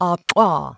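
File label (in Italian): Click Bilabiale, consonanti non polmonari; IPA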